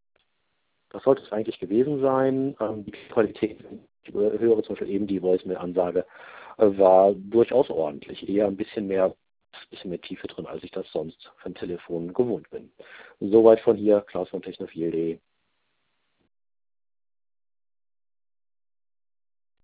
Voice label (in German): Ascend G6 normal und Freisprechen